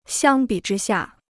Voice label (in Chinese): "相比之下", Chinese